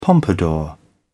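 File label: "pompadour", British English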